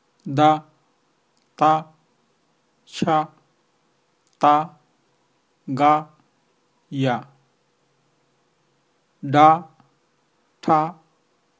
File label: d t c t g ydh th ch ts ng ny, Rohingya language